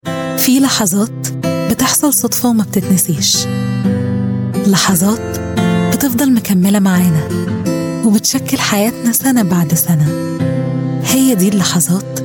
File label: arabic voice over female commercial